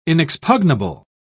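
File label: "inexpugnable", British English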